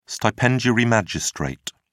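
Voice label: "stipendiary magistrate", English, British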